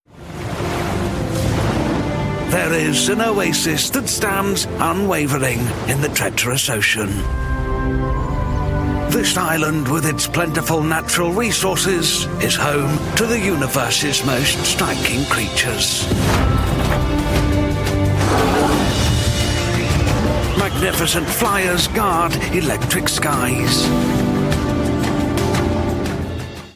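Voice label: historical documentary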